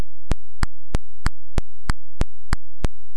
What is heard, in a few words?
Weather report